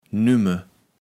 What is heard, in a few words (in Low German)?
"nümme", kaevels platt